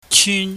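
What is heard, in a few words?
"chyun", Cantonese, high falling tone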